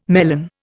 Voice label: "melon", Indian

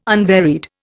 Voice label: "unvaried", Indian

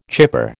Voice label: "chipper", American

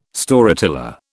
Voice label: "Storyteller", British

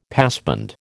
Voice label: "passband", American

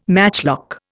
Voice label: "matchlock", Indian

